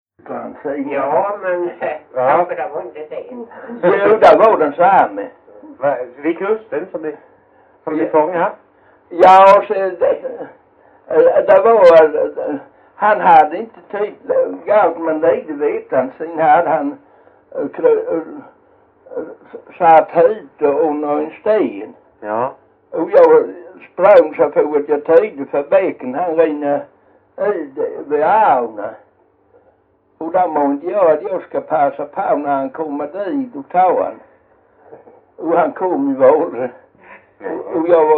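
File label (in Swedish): Jämshög Blekinge, dialekter svenska sagor; Folkminnen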